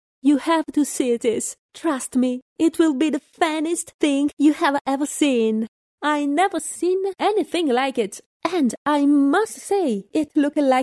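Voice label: Italian English, female, character; accent of italy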